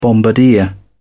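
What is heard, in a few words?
"bombardier", British English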